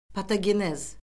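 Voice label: "patogenez", Azerbaijani; Orfoqrafiya Lüğəti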